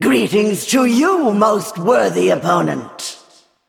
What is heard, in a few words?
Female Val'kyr MIRROR GREETINGS